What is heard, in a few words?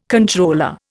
"controller", Indian